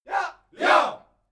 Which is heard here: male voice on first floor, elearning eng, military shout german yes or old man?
military shout german yes